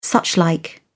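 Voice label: "suchlike", British English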